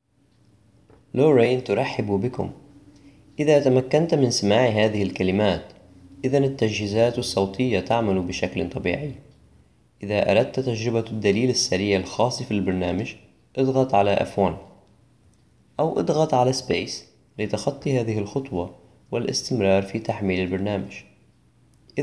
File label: Arabic, greeting